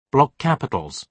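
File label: "block capitals", British English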